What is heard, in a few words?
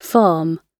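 "farm", British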